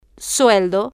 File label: "sueldo", Spanish